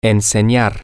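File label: Spanish, to teach, male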